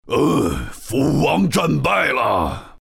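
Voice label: lose, chinese; dota2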